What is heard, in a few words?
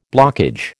"blockage", American